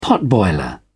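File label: "potboiler", British English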